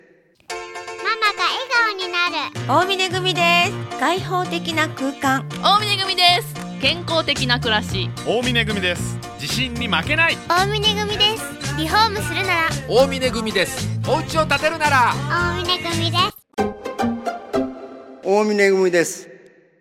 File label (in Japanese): 20秒ＣＭ; 大峯組様